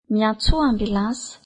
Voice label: Call the ambulance, Malagasy

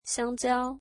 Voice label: bananas, mandarin

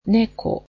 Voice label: "cat", japanese

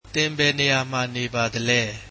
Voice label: Where do you live, burmese

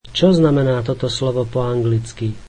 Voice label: What does that word mean in English, slovak

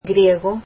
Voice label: Greek

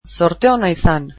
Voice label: Good luck, basque

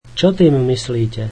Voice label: What do you mean, slovak

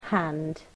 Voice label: "hand", English

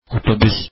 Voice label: "bus", Turkish

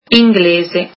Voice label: "English", italian

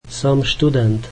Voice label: Im a student, slovak

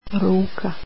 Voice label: hand, croatian

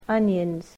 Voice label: "onions", english

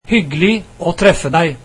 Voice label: nice to meet you, norwegian

